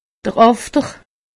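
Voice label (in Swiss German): "after", Haut Rhin; medecine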